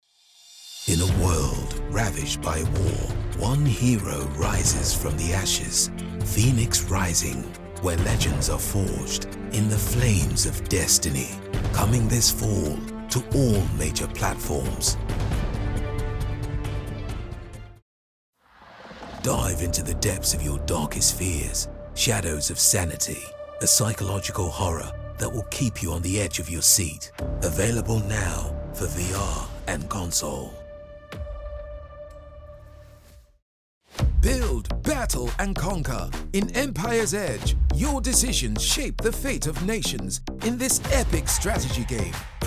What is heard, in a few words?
Gaming Trailer British Accent